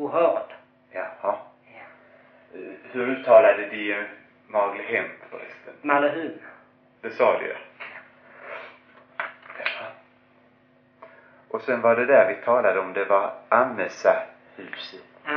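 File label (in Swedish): Brösarp Skåne, dialekter, svenska sagor; Folkminnen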